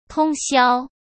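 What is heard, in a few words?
"通宵", Mandarin Chinese; hsk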